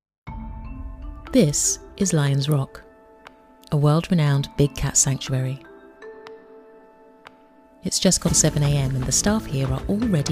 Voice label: Narration